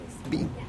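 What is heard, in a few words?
"be'in", Teotitlán del Valle Zapotec; male teenager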